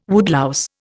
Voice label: "woodlouse", Indian

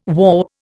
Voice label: "whaup", Indian

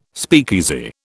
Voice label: "speakeasy", British

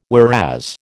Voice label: "whereas", American

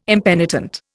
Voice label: "impenitent", Indian

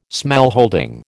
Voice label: "smallholding", American

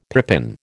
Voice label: "pippin", American

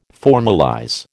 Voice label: "formalize", American

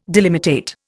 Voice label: "delimitate", Indian

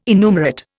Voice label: "innumerate", Indian

